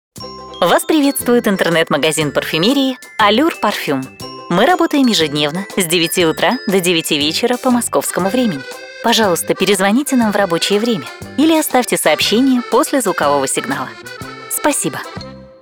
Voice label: IVR; allureparfum